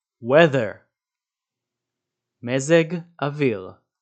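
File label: "weather" English, "מזג אוויר" Hebrew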